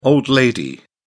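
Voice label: "old lady", British English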